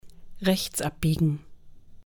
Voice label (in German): Fahrschule berliner Dialekt Rechts Abbiegen